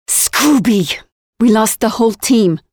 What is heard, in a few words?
Defend failed (team eliminated), Voiceovers; Project Argo